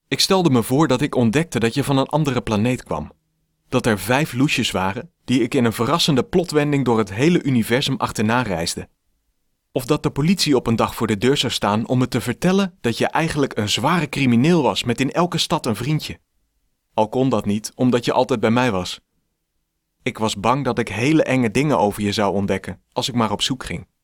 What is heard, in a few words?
audiobook; Toiletten